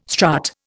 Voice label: "strut", Indian